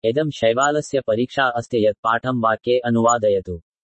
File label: sanskrit